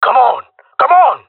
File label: round start, male; player vo; Counter Strike Model Pack; CS:GO